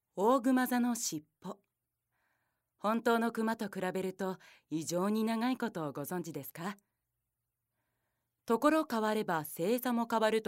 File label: narration